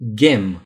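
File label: "yarn", Romanian; gcompris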